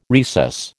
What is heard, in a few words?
"recess", American